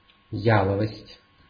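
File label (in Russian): "яловость", Russian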